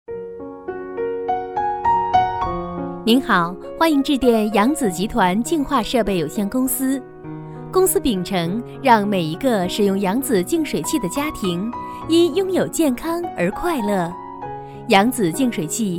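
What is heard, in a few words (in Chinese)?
彩铃温柔甜美; 彩铃扬子集团温柔甜美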